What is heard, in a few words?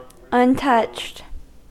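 "untouched", American English; pronunciations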